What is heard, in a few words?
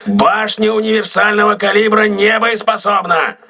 auxiliary caliber turret damaged, crew ships russian